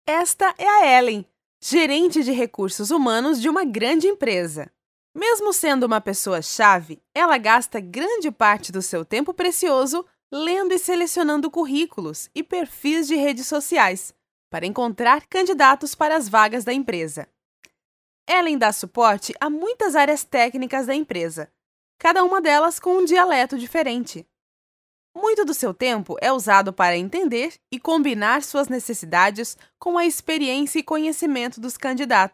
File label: brazilian portuguese female; dictor